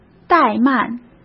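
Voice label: "怠慢", Mandarin Chinese; chinese-flashcards; hsk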